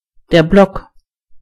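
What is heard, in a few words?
block, German voices words; gcompris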